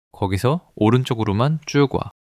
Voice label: neutral

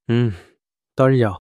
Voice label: fluster

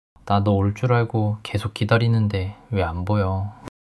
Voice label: sadness

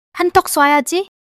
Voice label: joy

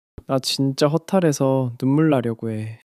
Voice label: sadness

